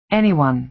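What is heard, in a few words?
"anyone", American English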